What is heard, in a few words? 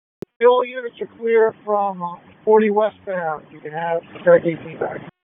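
Public Safety Tactical, p25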